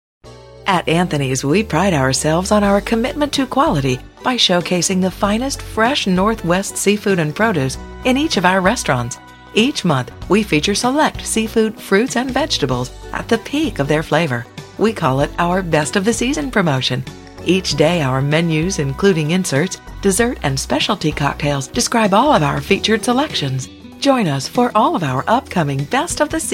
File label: seafood restaurant, female voices; audio on hold